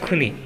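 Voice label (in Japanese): "kune", 南山形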